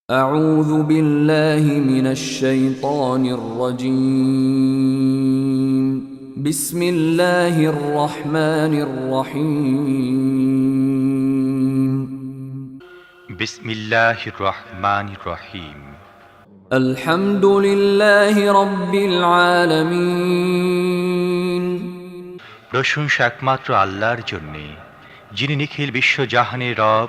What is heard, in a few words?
Surah Al Fatihah with bangla